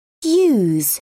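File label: "yous", British English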